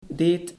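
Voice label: "deed", Dutch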